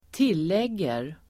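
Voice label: "tillägger", Swedish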